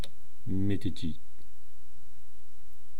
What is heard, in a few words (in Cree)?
"mitihchii", eastcree